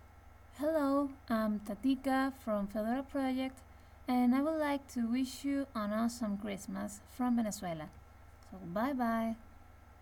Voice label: christmas en; fedora